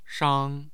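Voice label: "shang1", Mandarin Chinese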